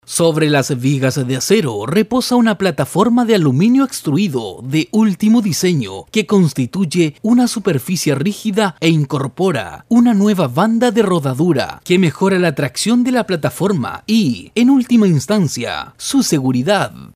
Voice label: locutor chile chilean voiceover; Chilean male voice over